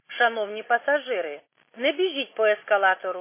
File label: khs st female, subway station